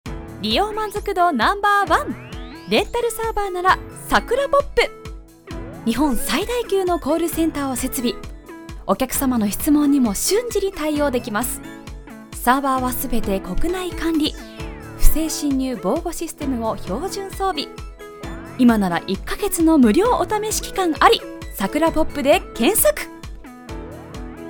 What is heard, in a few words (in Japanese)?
サーバーCM; ナレーター｜MC｜リポーター